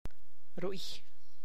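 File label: tlacochahuaya, Zapotec; there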